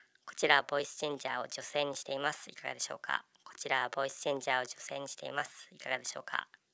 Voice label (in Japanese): ボイスチャンジャー 女性